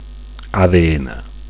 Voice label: "ADN", Catalan; DNA